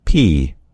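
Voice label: "pea", American English; gcompris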